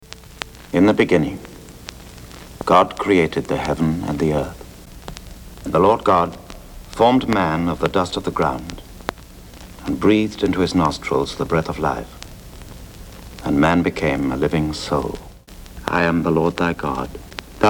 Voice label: old testament scripture reading